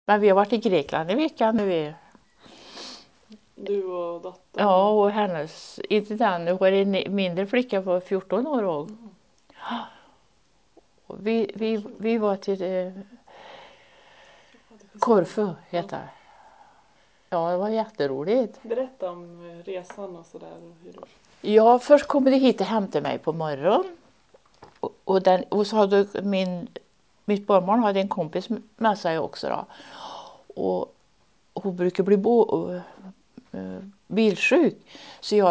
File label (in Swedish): Värmland